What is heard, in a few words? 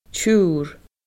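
"tiurr", Scottish Gaelic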